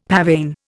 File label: "pavane", Indian; Dictionary